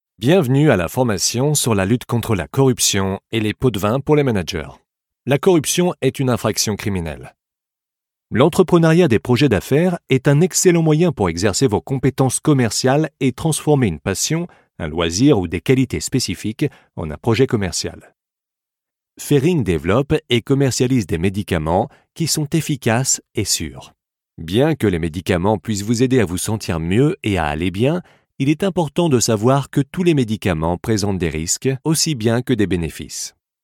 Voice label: french voice over serious straight reading